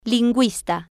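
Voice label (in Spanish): "linguista", Spanish, female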